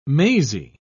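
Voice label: "mazy", British English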